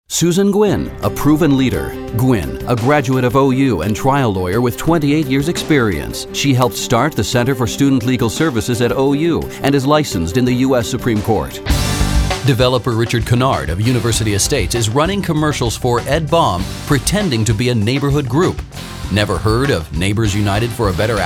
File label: english american political ads; Inglés (Estados Unidos)